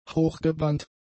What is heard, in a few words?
"hochgebrannt", German; adjectives